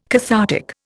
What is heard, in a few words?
"cathartic", Indian